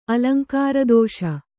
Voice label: "ಅಲಂಕಾರದೋಷ", Kannada

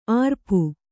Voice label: "ಅರಪು", Kannada

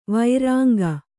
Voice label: "ವರಾಂಗಿ", Kannada

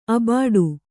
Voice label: "ಅಬಾಡೆ", kannada

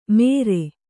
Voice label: "ಮೆರೆ", Kannada

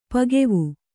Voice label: "ಪಕ್ವ", Kannada

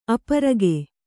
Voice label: "ಅಪರಾಗ", Kannada

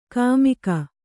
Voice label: "ಕುಮಕ್ಕು", Kannada

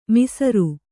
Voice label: "ಮಸಾರೆ", Kannada

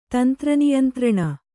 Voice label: "ತಂತ್ರನಿಯಂತ್ರಣ", Kannada

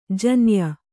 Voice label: "ಜೋನಾಯ್", Kannada

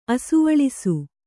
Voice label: "ಅಸುವಳಿಸು", Kannada

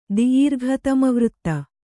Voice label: "ದಿೀರ್ಘತಮವೃತ್ತ", kannada

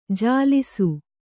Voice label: "ಜಲಸ", Kannada

